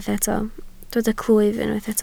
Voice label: "clwyf", Welsh